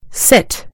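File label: "sit", British English; gcompris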